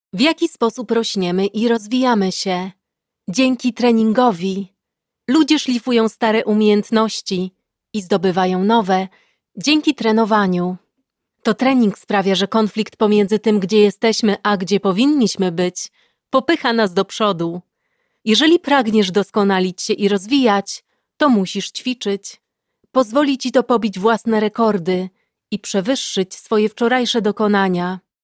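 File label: PL Narrative, Polish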